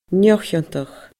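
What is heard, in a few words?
"neo chiontach", Scottish Gaelic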